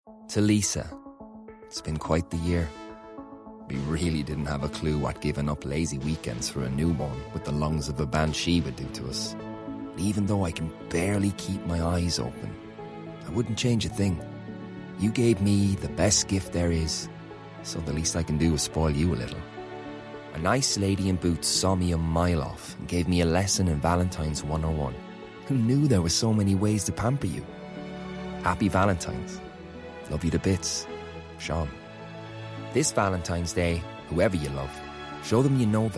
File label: Commercial Reel